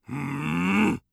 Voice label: irritated, orc voice; Orc Voice Pack